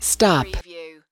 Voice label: US female stop; lynne publishing